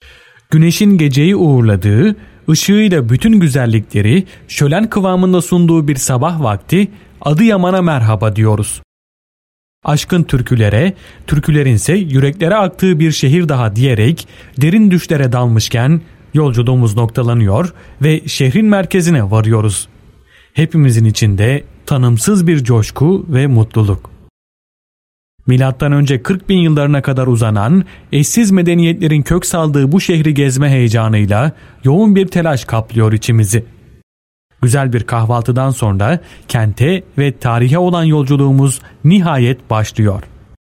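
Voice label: Turkish M Documentary, Turkish Male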